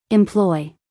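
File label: "employ", American English, female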